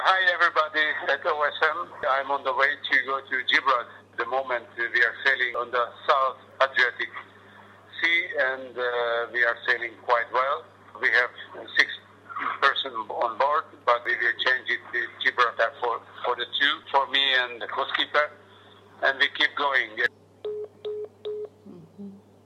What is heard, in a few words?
onboard riport Eng; SpiritofHUN 60; Nandor Fa